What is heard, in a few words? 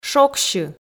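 "шогсьы", Komi, female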